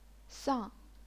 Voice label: "sang4", chinese pinyin